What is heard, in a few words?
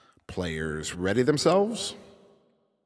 10 Seconds To Game Start, Announcer